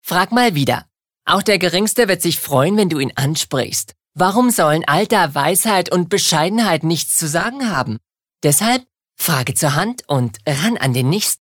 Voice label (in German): Werbung Frech